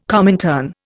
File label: "Comintern", Indian